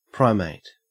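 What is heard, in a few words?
"primate", Australian English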